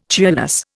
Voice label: "cheerless", Indian

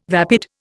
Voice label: "vapid", Indian

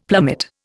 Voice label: "plummet", Indian; Dictionary

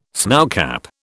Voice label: "snowcap", British

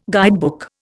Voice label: "guidebook", Indian

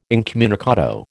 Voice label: "incommunicado", American

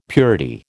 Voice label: "purity", American

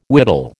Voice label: "whittle", American

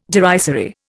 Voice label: "derisory", Indian

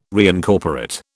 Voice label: "reincorporate", British

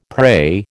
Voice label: "pray", American

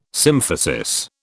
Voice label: "symphysis", British

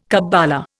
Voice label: "kabbala", Indian

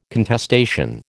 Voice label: "contestation", American

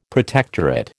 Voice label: "protectorate", American

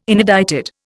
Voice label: "inedited", Indian

